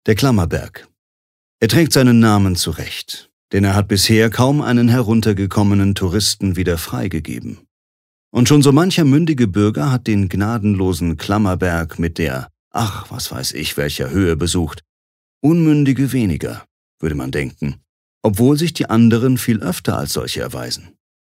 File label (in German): Kommentar Neutral